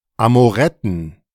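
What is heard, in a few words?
"Amoretten" German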